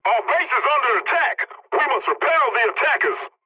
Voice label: pilot base under attack, english; War Thunder